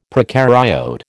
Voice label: "procaryote", American